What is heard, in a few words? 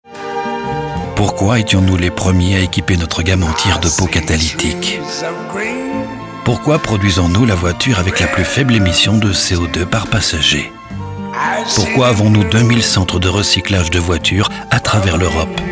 Commercial soft